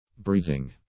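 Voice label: "breathing", English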